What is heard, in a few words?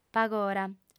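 "pagora" Sardinian, female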